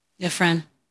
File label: Voice Acting es